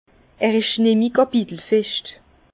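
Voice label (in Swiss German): "kapitelfescht", Alsace Bas Rhin; vin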